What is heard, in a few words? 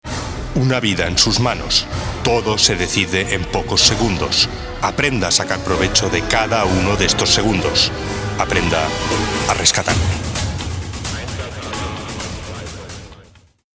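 Trailer; Spanisch m.; NATIVES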